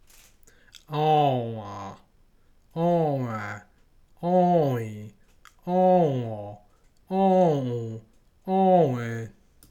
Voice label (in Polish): "ą", fonetyka